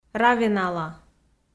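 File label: "равенала", Komi, female; kpv; audio dict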